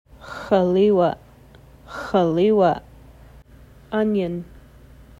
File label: x̌liwaʔ, Nsyilxen Plant Names; nodding onion